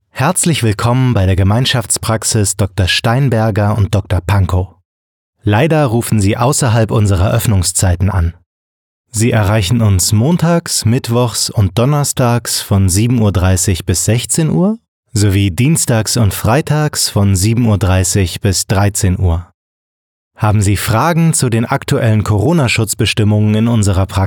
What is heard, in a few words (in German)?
Telefonansage Arztpraxis